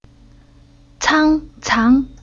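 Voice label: "Cang", Chinese syllable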